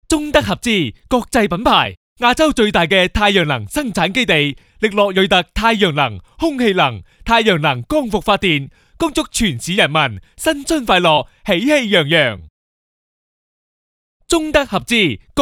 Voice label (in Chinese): 粤男 贺年促销; 力诺瑞特太阳能